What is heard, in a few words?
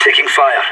pinned down, radio; SAS